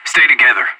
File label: radio bot regroup, player vo anarchist; Counter-Strike Global Offensive